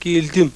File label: melpa